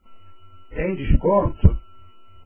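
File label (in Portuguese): "tem desconto" Portuguese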